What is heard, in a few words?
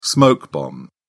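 "smoke bomb", British English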